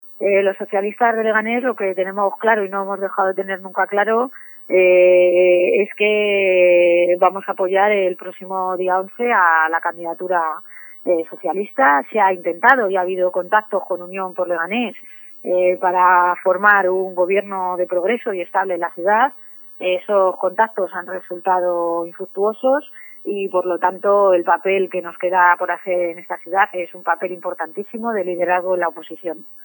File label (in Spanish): noticias